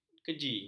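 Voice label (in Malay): "keji", Malay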